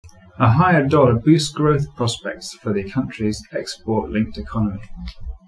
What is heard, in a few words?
reverb far 2ch